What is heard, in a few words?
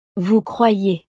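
"vous croyez", French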